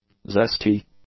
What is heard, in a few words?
"zesty", english; adjectives